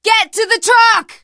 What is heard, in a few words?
arrive truck, teen girl, survivor voice; source engine; left 4 dead 2